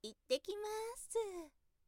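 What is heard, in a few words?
"ittekimasu", Japanese, woman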